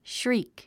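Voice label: "shriek", English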